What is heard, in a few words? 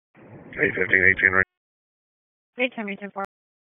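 Sheriff's Office Dispatch; WSO DISP 1; Wake; p25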